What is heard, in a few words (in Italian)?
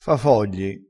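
"fa fogli", dialettale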